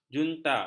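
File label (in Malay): "junta", Malay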